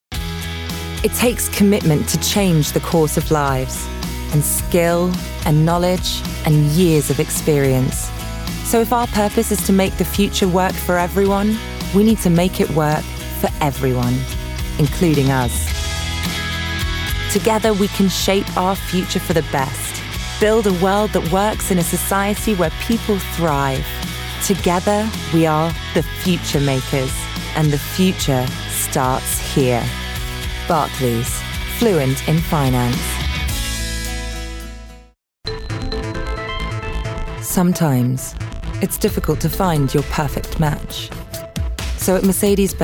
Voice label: Commercial